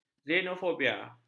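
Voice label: "xenofobia", Malay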